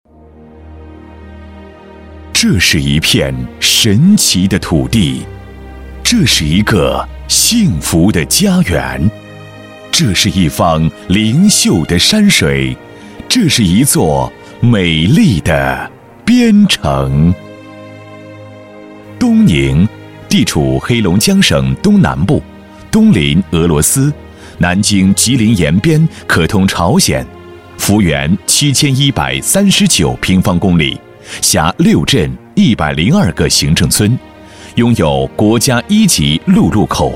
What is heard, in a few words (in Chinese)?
男 专题 旅游风光片 加音乐; 东宁